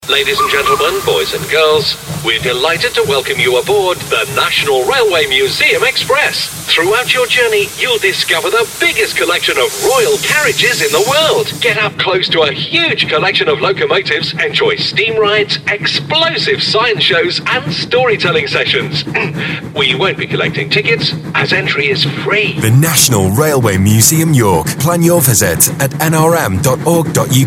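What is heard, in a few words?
radio advertising; National Railway Museum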